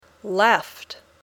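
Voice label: "left", English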